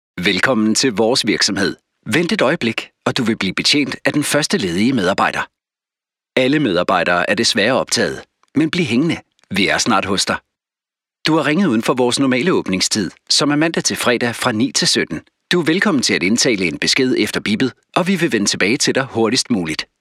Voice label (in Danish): Mand uformel; danske professionelle indtalinger